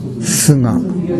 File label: "suga", minamiyamagata